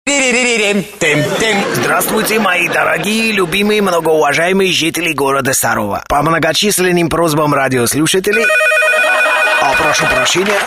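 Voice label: Parod, parody; Zhorik Vartanov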